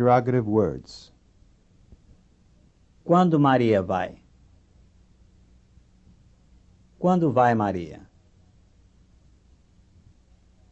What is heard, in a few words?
From Spanish to Portuguese; FSI